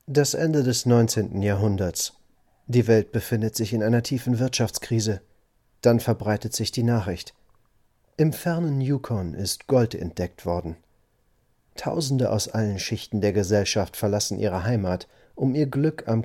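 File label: German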